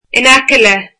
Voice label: "near", Irish